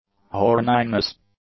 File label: "horniness", English; nouns